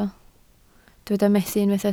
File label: "methu", Welsh